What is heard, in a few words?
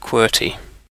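"qwerty", British English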